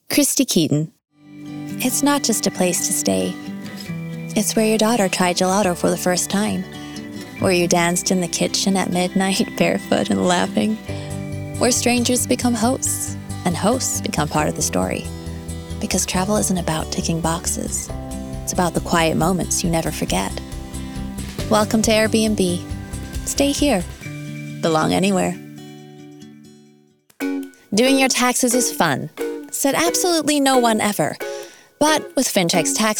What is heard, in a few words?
Commercial